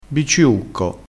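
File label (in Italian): "bicciucco", dialettale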